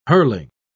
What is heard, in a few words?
"hurling", pronounce